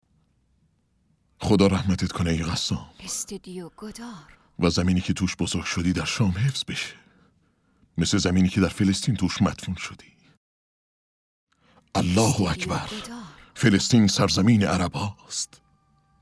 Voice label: dub